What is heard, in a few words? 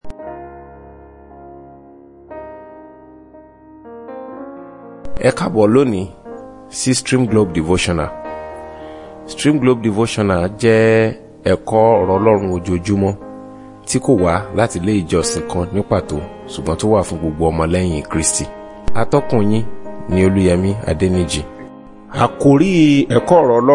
Devotional Audio Yoruba